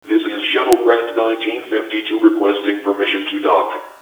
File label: request to dock, intercom; ProjectMobius